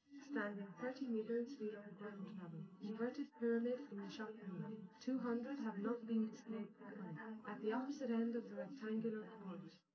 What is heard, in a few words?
female, live rec